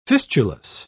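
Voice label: "fistulous", British English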